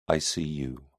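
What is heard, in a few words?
"icu", British English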